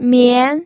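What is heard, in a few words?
"mian", second tone, pinyin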